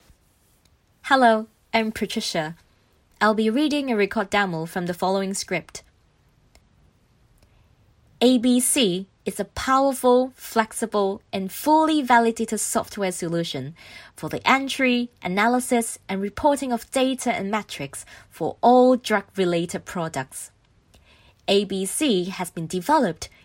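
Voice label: Cantonese accented English; GoLocalise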